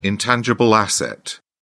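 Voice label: "intangible asset", British English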